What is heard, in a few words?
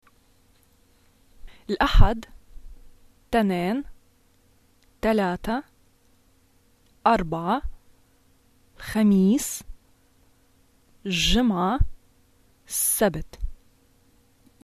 days of the week, arabic levantine